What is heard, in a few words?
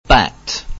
"bat", English